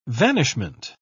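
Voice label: "vanishment", British English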